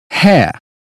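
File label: "hair", British phonetics; 英语音标发音（剑桥版）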